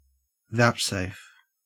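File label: "vouchsafe", Australian English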